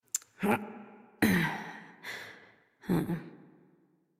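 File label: Horse Dismount Adult Operator; Warframe; Duviri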